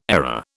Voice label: "ARA", British

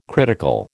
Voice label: "critical", American

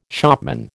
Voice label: "shopman", American

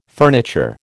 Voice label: "furniture", American

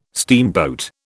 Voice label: "steamboat", British

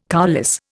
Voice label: "coulisse", Indian

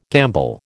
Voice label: "gamble", American

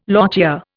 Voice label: "lochia", Indian

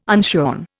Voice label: "unshorn", Indian

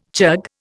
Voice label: "chug", Indian

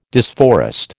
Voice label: "disforest", American

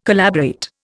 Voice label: "collaborate", Indian

